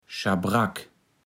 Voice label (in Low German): "Schabrack", Platt